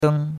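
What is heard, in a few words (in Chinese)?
"deng1", Chinese; Cours-de-Chinois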